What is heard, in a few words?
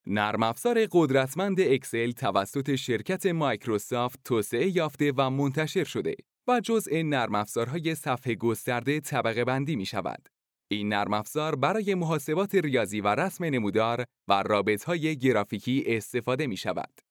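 Farsi, Iran, male, voice over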